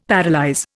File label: "paralyse", Indian; Dictionary